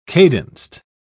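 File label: "cadenced", British English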